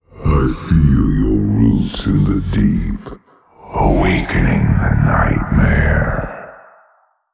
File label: Male Old God START DRUID